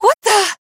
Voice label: pain death